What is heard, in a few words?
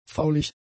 "faulig", German; adjectives